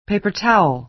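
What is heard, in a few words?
"paper towel", American English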